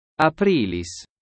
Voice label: April latin